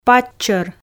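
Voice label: "паччор", Komi, female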